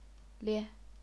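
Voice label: "lie", Chinese, neutral tone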